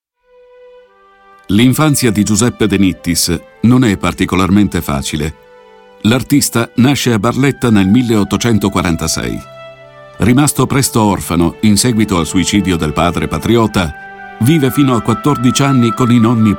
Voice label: documentary voice over

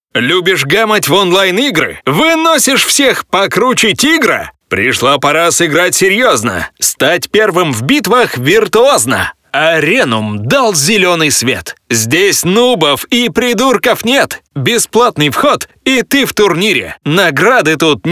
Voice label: Russian voice over characteristic

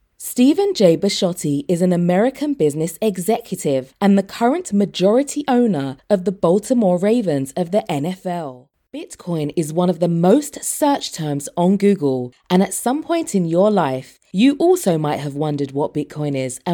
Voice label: English Voice Over

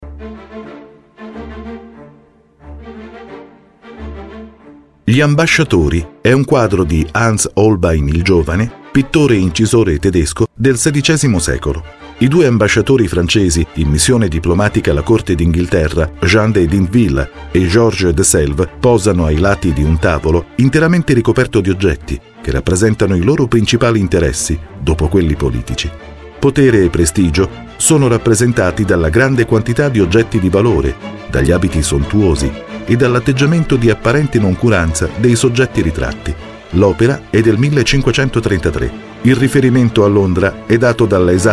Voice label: audio guide voiceover

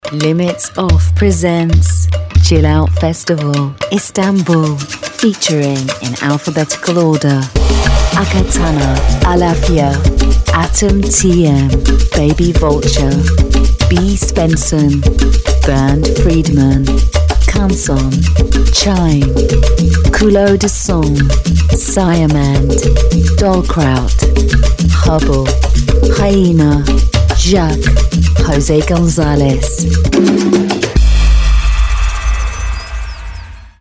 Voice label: Radio

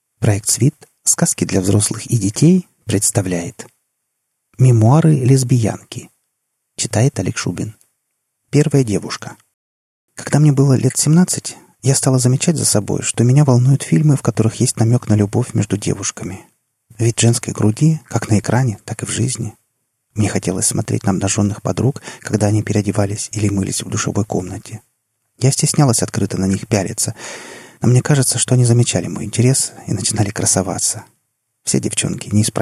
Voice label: Russian voiceover

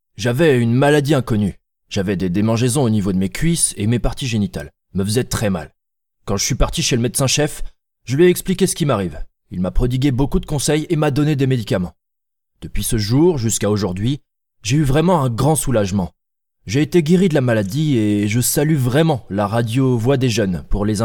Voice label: French Voice Over

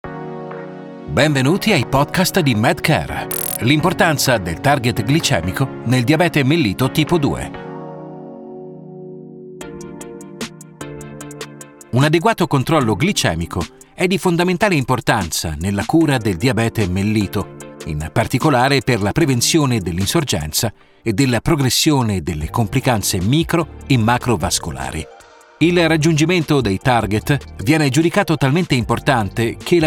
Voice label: warm calm narration promotion voiceover

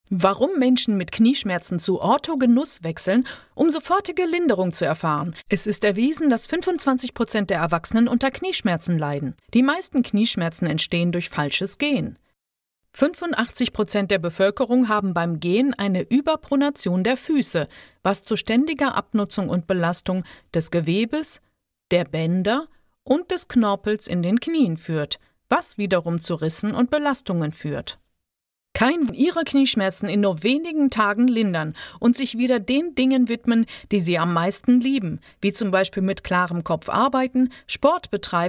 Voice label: German Voice Over

